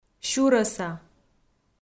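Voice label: "сюрӧса", Komi, female; kpv audio dict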